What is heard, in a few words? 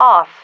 "off", female